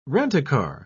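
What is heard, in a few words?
"rent-a-car", English, British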